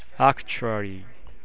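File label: "actually", Japanese, male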